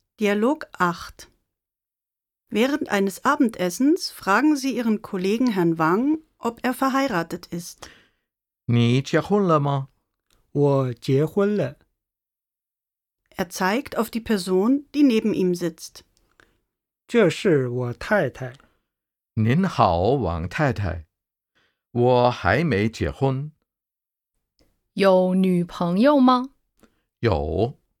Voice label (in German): Dialog